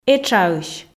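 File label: "этшаысь", Komi, female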